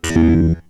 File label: Vocoder